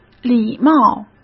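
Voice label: "礼貌", Mandarin Chinese; chinese flashcards; hsk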